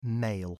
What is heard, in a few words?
male